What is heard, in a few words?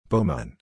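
"bowman", English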